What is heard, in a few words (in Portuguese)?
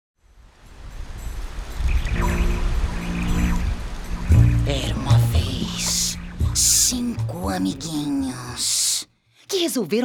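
Português - Brasileiro, DUBLAGEM (todas as vozes), Voz Caricata, Feminino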